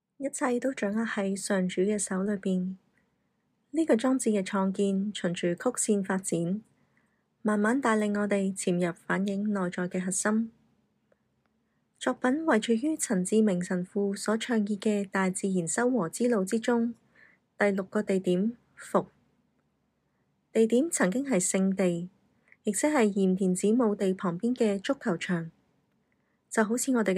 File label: Canto